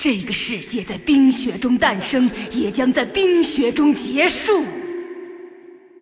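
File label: taunt, Chinese; Lissandra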